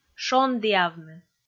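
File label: "шондіавны", Komi, female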